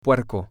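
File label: pork, Spanish, male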